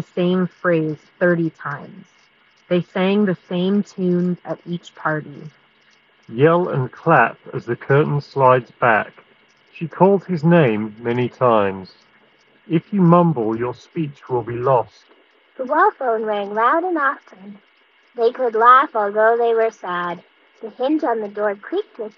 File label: Samsung Galaxy Buds 2 Pro Wind microphone sample